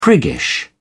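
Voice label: "priggish", British English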